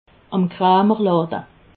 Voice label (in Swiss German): "amkramerlade", alsace